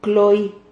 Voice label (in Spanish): "cloi", Silabas